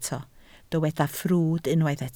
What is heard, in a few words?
"ffrwd", Welsh